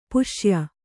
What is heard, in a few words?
"ಪುಸ್ಯ", Kannada